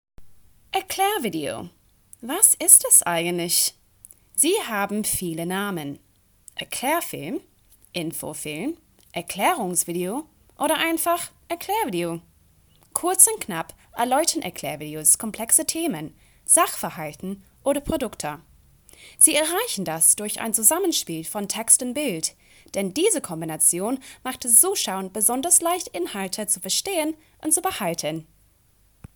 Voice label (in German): deutsche Stimmprobe